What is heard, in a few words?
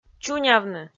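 "чунявны", Komi, female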